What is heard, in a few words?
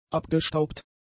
"abgestaubt", German; adjectives